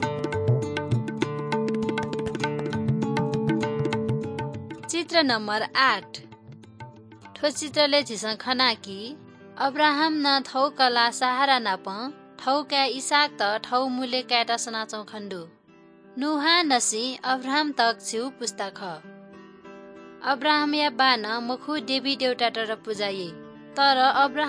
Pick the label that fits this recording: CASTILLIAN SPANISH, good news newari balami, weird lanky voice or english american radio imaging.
good news newari balami